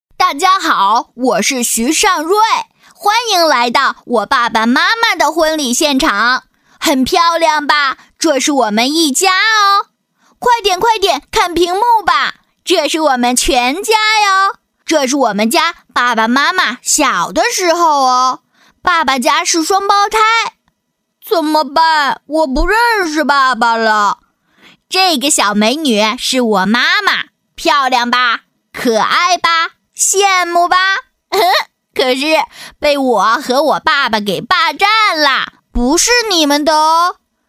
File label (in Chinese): 女-男童; 爸爸妈妈的婚礼现场; 可爱